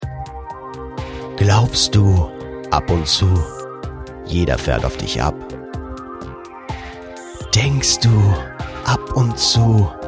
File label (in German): Parfum Werbung